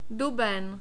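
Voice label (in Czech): "duben", czech, male; short vowels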